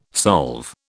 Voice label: "solve", British